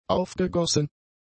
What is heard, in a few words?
"aufgegossen", German; adjectives